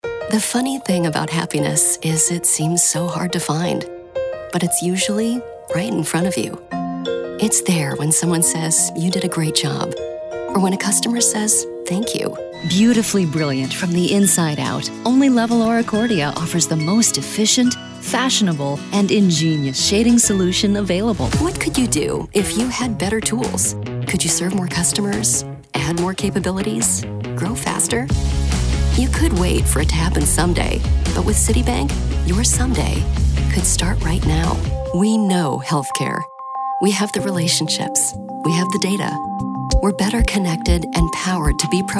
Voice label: female industrial